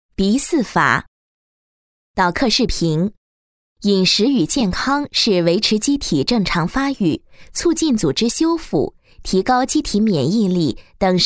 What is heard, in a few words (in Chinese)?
国语女声配音 解说 医疗 操作 教程; 鼻饲法导课视频